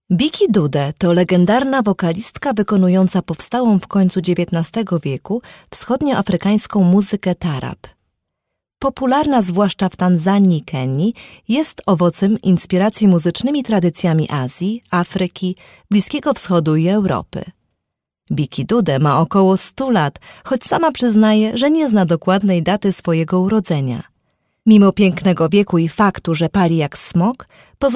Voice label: polish female